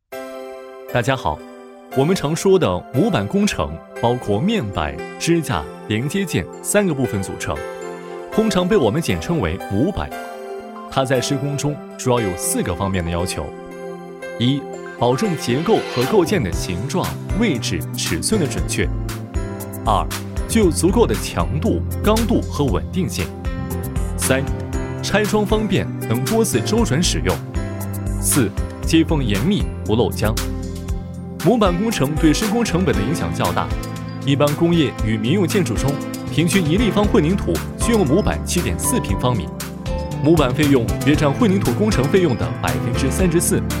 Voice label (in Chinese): 男 轻松解说; 梁模板